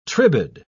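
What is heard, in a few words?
"tribade", British English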